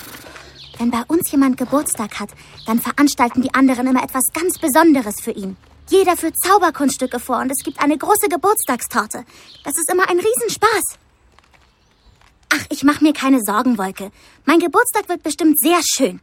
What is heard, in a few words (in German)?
Hörspiel; Sternenfohlen Einhornprinz